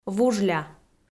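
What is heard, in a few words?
"вужля", Komi, female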